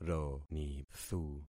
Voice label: "ronithu", concat syllables; Affixing experiment

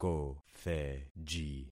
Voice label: "kotheji", concat syllables; Affixing experiment

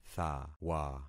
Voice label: "thawa", concat syllables; Affixing experiment

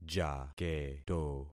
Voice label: "jaketo", concat syllables; Affixing experiment

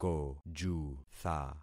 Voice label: "kojutha", concat syllables; Affixing experiment

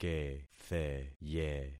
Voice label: "ketheye", concat syllables; Affixing experiment

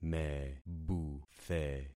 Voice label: "mebuthe", concat syllables; Affixing experiment

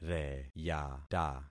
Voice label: "reyata", concat syllables; Affixing experiment

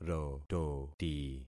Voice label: "rototi", concat syllables; Affixing experiment

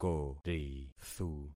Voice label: "korithu", concat syllables; Affixing experiment

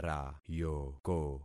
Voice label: "rayoko", concat syllables; Affixing experiment

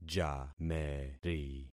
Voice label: "jameri", concat syllables; Affixing experiment

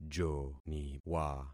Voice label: "joniwa", concat syllables; Affixing experiment

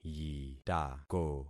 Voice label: "yitako", concat syllables; Affixing experiment

